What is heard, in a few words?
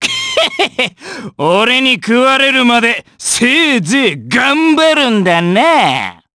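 vox, voices, Japanese; kingsraid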